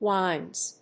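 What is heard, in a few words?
"whines", English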